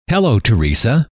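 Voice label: hello, male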